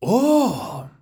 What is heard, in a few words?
mexican oh; netent